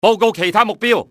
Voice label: report targets, cantonese male; XBOX; enemy

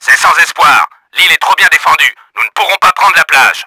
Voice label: FAILED LANDING, french; Battle Engine Aquila; XBOX

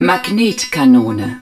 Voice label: hud rail gun, german

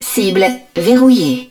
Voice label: HUD TARGET LOCKED, french; Battle Engine Aquila

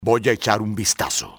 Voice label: im going to check, spanish soldier; XBOX

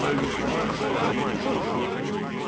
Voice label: talks, tavern; Pirates Of The Caribbean; AMBIENT

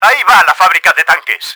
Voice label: lost tank factory, Spanish; Battle Engine Aquila; XBOX

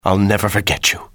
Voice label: thank, english male; XBOX; civ

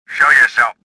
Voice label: suspicious; swamp

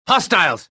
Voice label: anger; bespincop

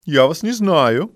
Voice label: dont know, male; XBOX; civ

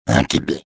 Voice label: give up, trandoshan; XBOX

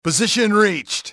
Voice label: "pos reached", english male; XBOX; enemy

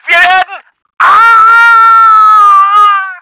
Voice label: BUILDING DIES, german; Battle Engine Aquila

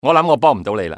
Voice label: "dont think", male; XBOX; civ

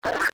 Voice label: spanish; XBOX; ambience

